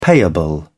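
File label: "payable", British English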